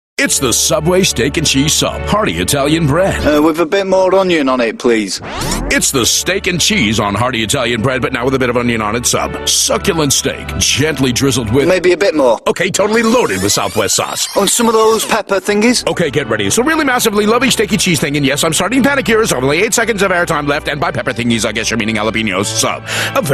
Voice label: US English TVC; Subway